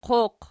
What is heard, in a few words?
"qoq", Archi